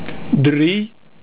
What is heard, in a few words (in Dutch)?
"drie" Dutch